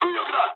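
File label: right wing mood high, speech japanese; War Thunder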